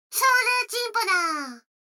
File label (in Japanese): 女の子 台詞, ASMR